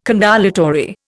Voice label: "condolatory", Indian